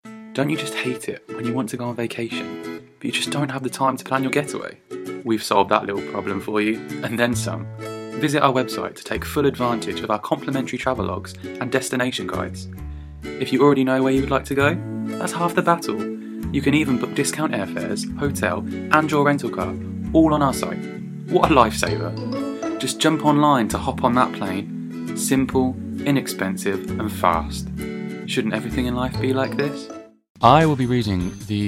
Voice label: English UK